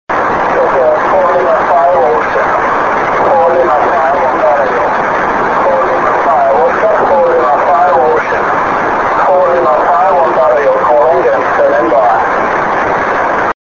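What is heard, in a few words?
6m single sideband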